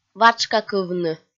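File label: "ватшкакывны", Komi, female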